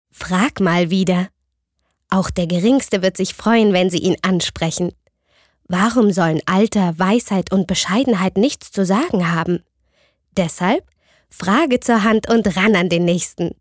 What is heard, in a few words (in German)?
Werbung Freundlich